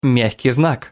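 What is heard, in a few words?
"ь", Russian pronunciation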